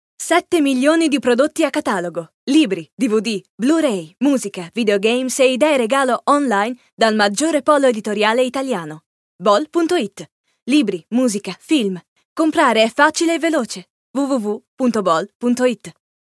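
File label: it commercial, Italian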